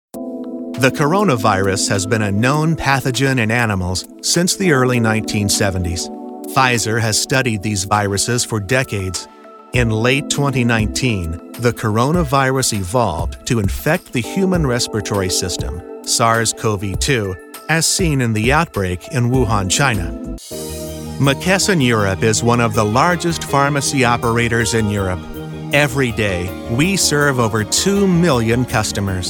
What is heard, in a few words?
Male Medical Narration